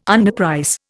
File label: "underprice", Indian